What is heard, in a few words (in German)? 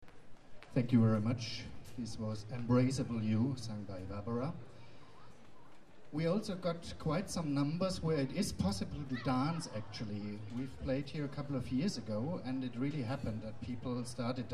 Ansage